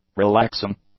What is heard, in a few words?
"relaxing", English; adjectives